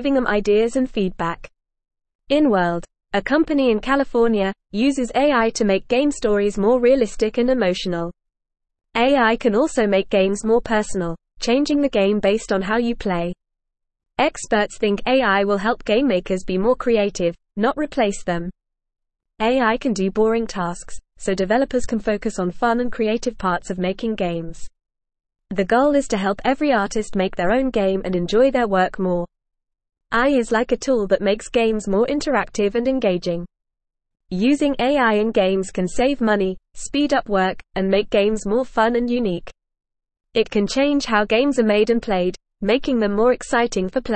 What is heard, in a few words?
English Newsroom Lower Intermediate FAST Reading; AI Makes Games Better and More Fun